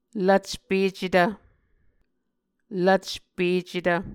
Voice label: "lvcpecetv", Muscogee